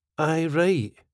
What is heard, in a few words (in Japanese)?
Aye right スコットランド英語